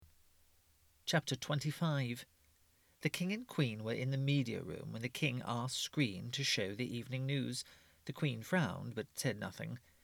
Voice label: Audiobook